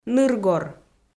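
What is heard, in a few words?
"ныргор", Komi, female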